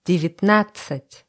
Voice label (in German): russische zahl 20; russische zahl 19; Zahlen auf Russisch